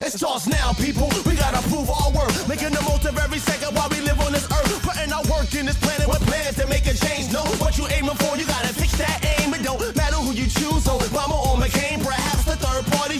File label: hiphop psa